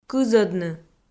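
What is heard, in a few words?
"кызӧдны" Komi, female; kpv audio dict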